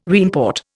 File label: "reimport", Indian